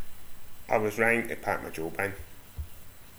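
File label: "wrang", slang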